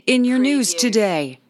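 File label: News Female News Today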